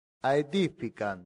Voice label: "aedificant", latin; santillana

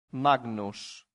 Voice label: "magnus", Latin; santillana

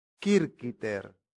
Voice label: "circiter", Latin; santillana

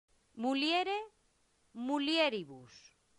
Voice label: "muliere mulieribus", latin; santillana

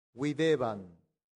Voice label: "videbant", Latin; santillana

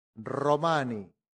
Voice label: "romani", latin; santillana

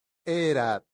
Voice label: "erat", latin; santillana

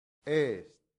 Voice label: "est", Latin; santillana

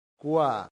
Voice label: "qua", latin; santillana

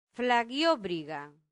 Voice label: "flaviobriga", latin; santillana

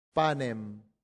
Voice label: "panem", latin; santillana

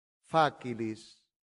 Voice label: "facilis", latin; santillana

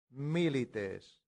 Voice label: "milites", latin; santillana

